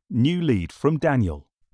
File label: whisper